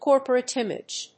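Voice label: "Corporate image", English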